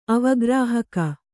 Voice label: "ಅವಗ್ರಾಹಕ", Kannada